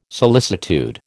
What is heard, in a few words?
"solicitude", American